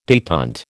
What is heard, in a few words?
"detent", American